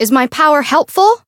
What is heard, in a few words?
Voice variable chant; ruina